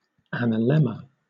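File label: "analemma", British English; pronunciations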